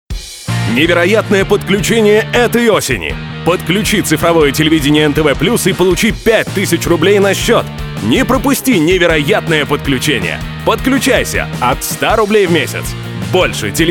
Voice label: РАДИО РЕКЛАМА

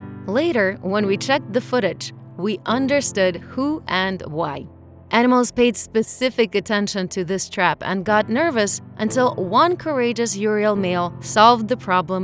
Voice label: демо ENG ЗАКАДР

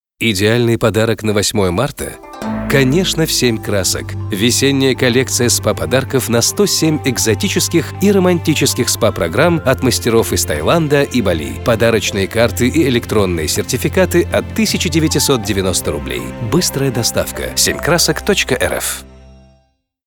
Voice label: аудиореклама диктор; Тай Спа салона 7 КРАСОК; запись голоса монтаж сведение ролика

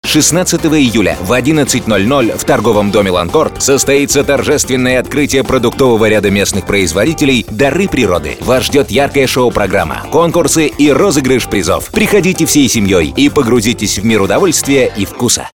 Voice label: аудиореклама диктор; ТД Ланкорд; запись голоса монтаж сведение ролика

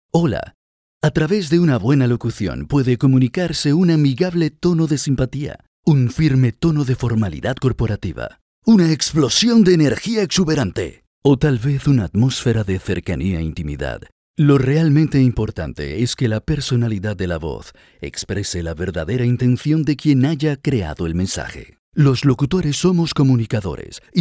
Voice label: Мужской испанский

